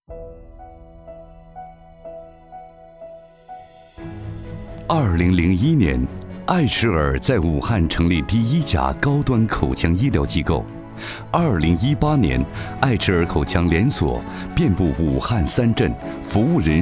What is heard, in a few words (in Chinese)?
专题 医院 口腔医院 磁性, 男国; 爱齿尔口腔医院